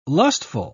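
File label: "lustful", British English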